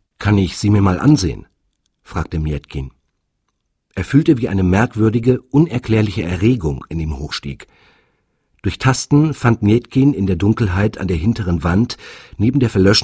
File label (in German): Hörspiel; SWR